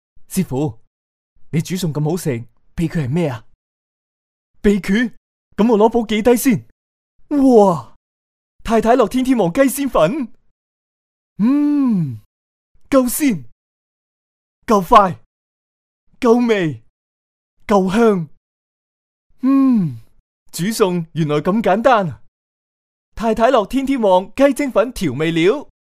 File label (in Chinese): 粤男 广告; 太太乐鸡精